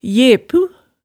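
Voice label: "jepu", Hemsedal dialect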